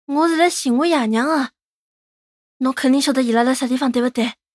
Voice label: 男孩 对话

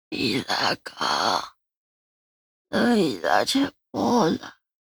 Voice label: 老人喂食

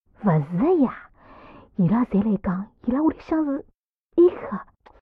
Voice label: 偷听对话; c02 6偷听对话 李氏

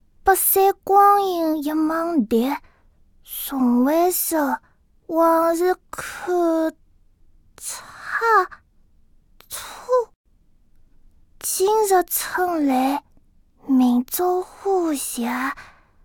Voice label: 开头阅读

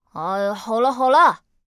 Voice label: 残疾小孩

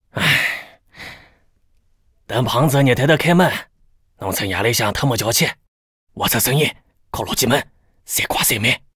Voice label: 偷听对话; c02 6偷听对话 癞子

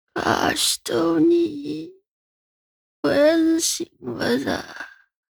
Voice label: 老人喂食

